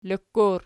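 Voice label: "lok" plural, Archi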